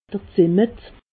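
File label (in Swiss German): "zimmet", Alsatian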